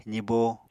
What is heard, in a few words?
"zibo", Apatani